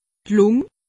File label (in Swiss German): "lung", alsace; medecine